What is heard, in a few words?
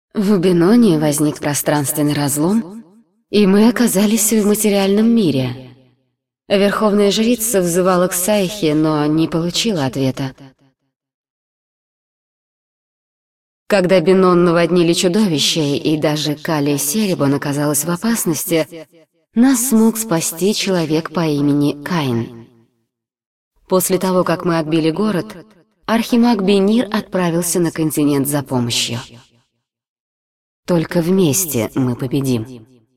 dialog, ru; lineage2